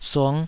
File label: "sung5", Taiwanese